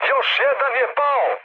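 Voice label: Serbian player killed enemy mood med, speech; War Thunder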